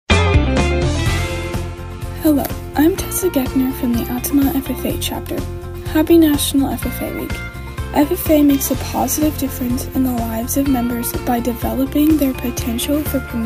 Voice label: female male; ffa week; altamont